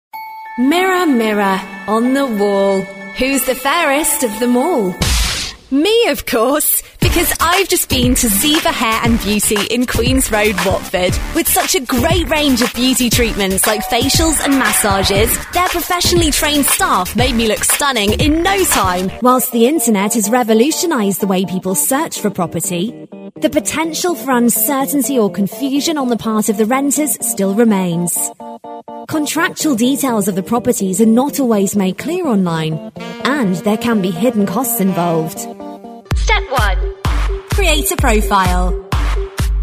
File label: COMMERCIALS